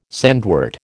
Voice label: "sandwort", American